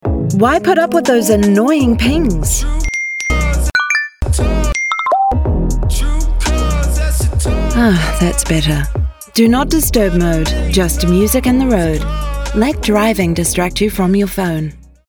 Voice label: radio ad